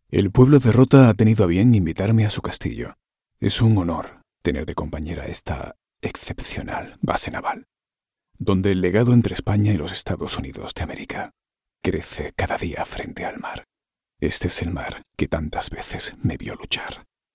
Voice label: spanish video games